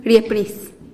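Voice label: "riepnis", Teotitlán del Valle Zapotec; thunder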